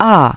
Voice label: "A geschlossen", Navigation; DISTEFORA Navigation; Mobile Navigator Notebook

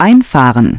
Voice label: "einfahren", Navigation; DISTEFORA Navigation; Mobile Navigator Notebook

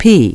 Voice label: "P geschlossen", Navigation; DISTEFORA Navigation; Mobile Navigator Notebook

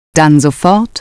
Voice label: "dann sofort", Navigation; DISTEFORA Navigation; Mobile Navigator Notebook

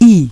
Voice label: "I geschlossen", Navigation; DISTEFORA Navigation; Mobile Navigator Notebook

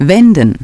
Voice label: "wenden", Navigation; DISTEFORA Navigation; Mobile Navigator Notebook

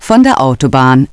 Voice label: "von der Autobahn", Navigation; DISTEFORA Navigation; Mobile Navigator Notebook

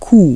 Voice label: "Q geschlossen", Navigation; DISTEFORA Navigation; Mobile Navigator Notebook

